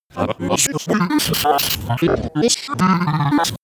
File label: glitch talk fx